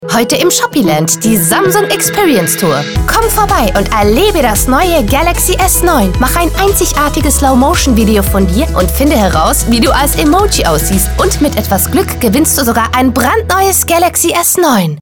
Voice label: Samsung Experience Tour DE; Shoppyland

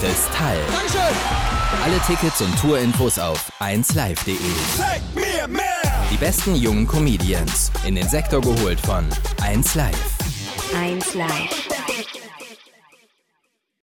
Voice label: Comedy

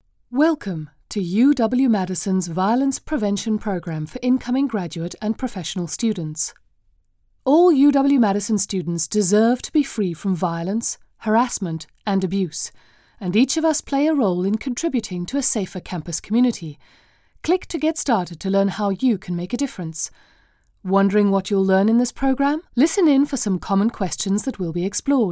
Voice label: e-learning

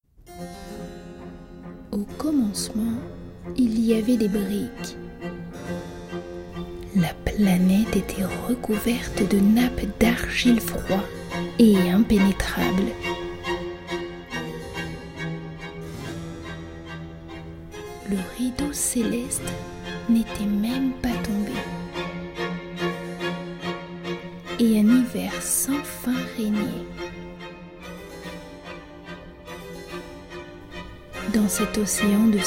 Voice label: artistic style

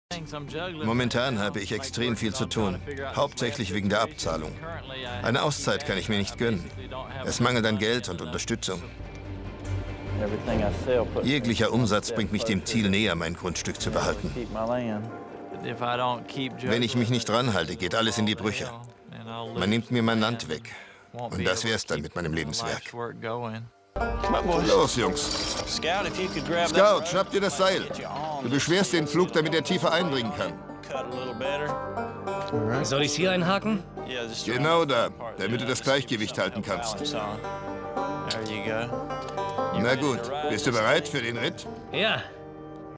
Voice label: Voiceover TV; Mountain Man; History

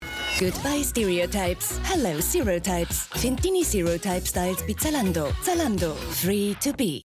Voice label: TV Spot; Zalando Goodby Stereotypes

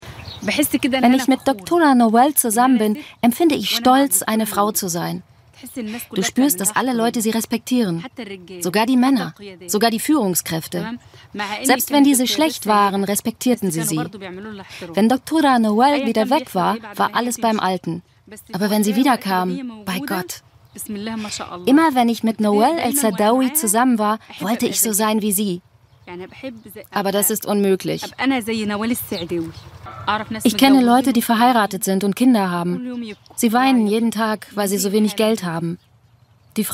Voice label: voiceover; Naval el Saadawi

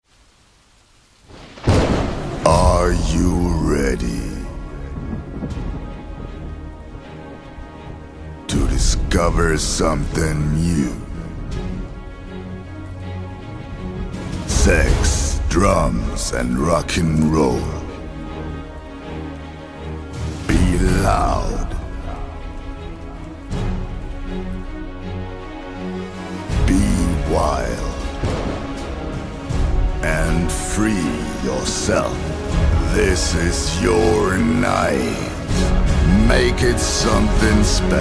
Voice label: Englisch Opening Trailer

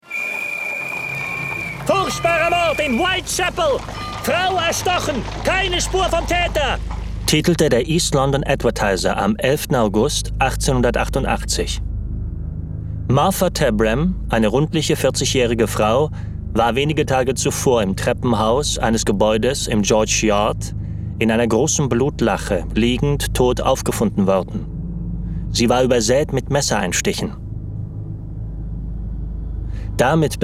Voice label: Sach Hoerbuch audiobook non fictional; jack the ripper

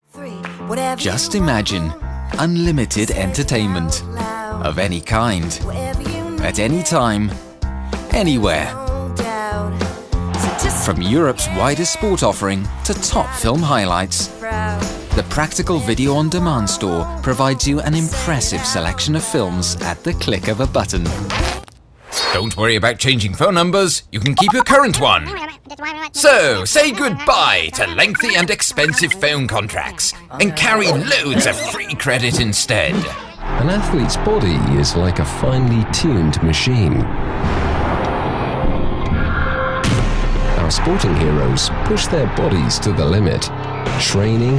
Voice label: VO Commercial

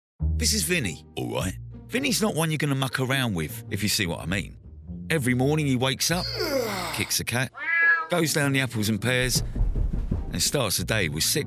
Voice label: Character sample

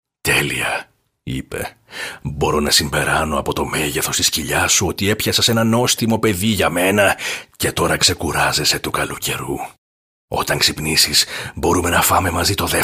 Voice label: Audio book

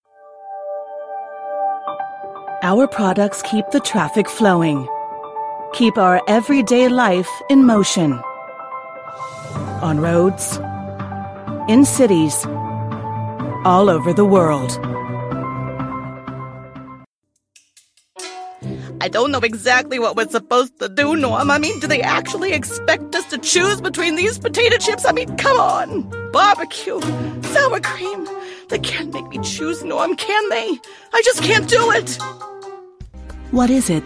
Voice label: Commercial

